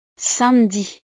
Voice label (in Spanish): "samedi", frances